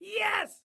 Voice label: Team Yes, Excited Guy